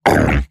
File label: Pain Eximus Corpus Robot Large; Dialog; Barks; Battle; Corpus Robotic Large